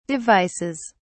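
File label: "devices", British English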